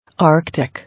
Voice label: "Arctic", English

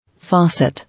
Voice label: "Faucet", English

